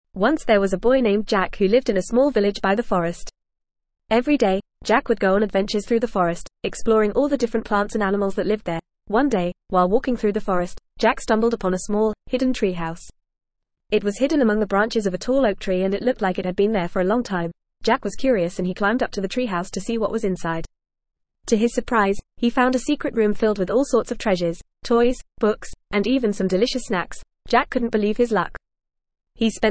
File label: ESL Short Stories for Kids FAST reading; The Secret Treehouse